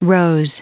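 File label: "rose", US English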